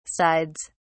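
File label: "sides", British English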